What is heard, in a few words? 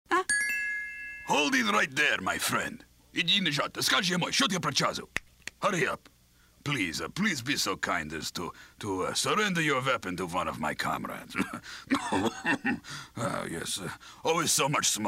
Gruff Russian Spy; animation